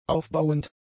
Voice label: "aufbauend", German; adjectives